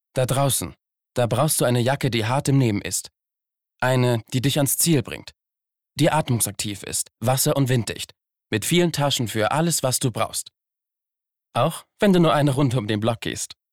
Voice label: Werbung Jacke

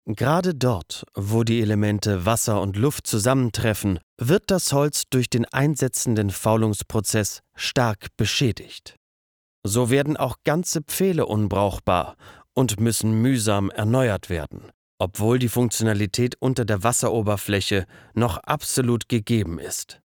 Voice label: Erklaerfilm; Wasserpfaele

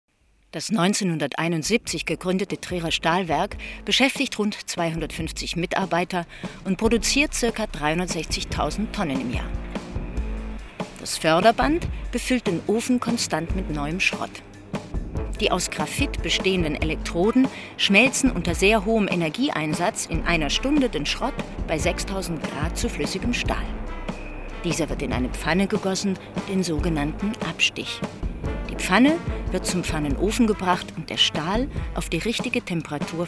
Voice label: Industriefilm

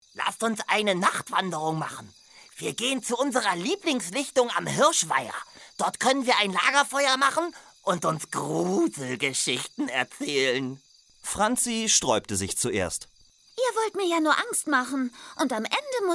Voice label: trickstimme

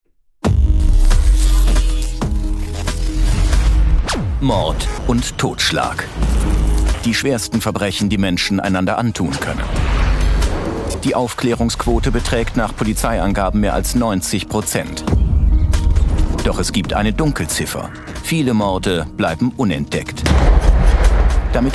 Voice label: Doku; Forensiker Im Einsatz; ZDF Doku

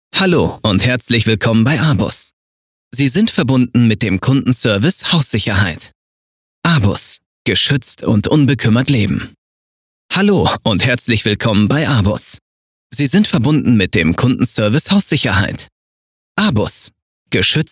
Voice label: Servicehotline Telefonansage IVR; Abus

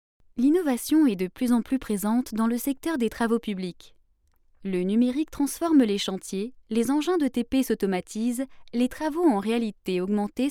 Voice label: informativ

